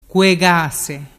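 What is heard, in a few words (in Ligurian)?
"cuegase", Ligurian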